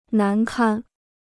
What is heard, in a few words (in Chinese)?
"难堪", Chinese